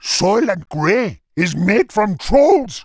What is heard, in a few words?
Angry; warcraft3; Witch Doctor